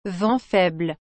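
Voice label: "vent faible", French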